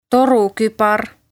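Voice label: "Torukubar", Estonian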